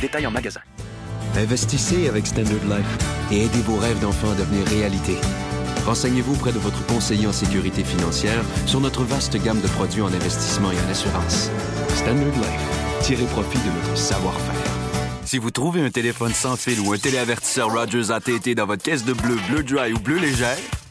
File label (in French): Demo de voix